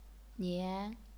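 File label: "nian", second tone, chinese pinyin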